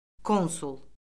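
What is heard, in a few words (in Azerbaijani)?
"konsul", Azerbaijani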